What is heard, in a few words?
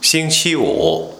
"Xingqi Wu", Chinese